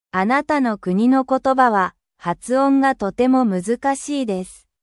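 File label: Japanese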